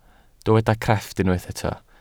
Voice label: "crefft", welsh